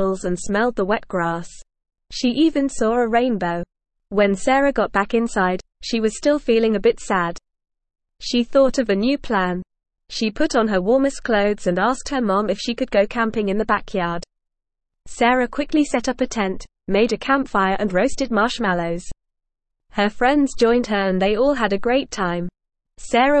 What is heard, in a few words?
ESL Short Stories for Kids NORMAL reading The Cloudy Day